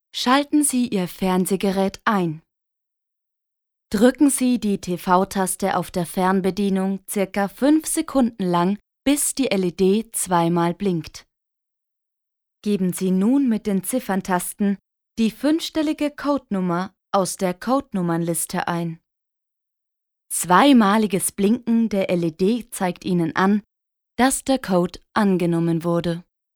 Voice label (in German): Bedienungsanleitung sachlich